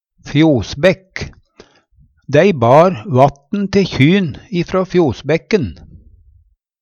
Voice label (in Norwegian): fjosbækk, Numedalsmål; Graven brønn blir i Uvdal kalla bekk.